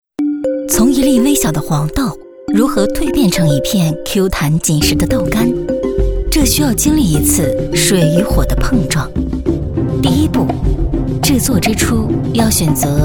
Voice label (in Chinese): 女 专题 女声舌尖感觉 纪录片 食物变革